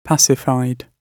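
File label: "pacified", British English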